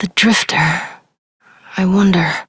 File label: Operator Voice, en; New War; Transference